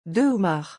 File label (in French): "deux homards" French; pronunciation; forbidden liaisons